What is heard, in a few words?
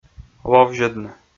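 "ловзьӧдны", Komi, male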